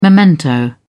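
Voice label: "memento", British English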